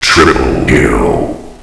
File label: score, announcer; hl1mp; XDM